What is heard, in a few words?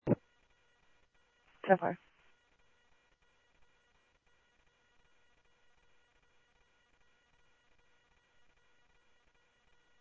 Mesa Simulcast, MESA PD, scanner audio